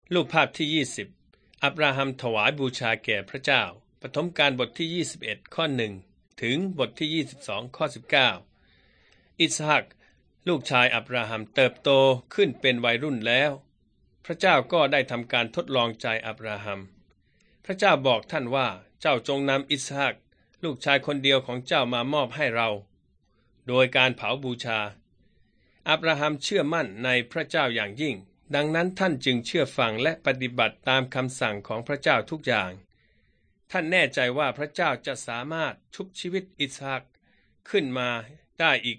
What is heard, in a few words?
beginning with god thai central